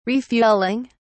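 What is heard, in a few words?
"refueling", English, uk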